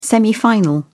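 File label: "semifinal", English, British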